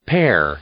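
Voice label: "pear", English; noun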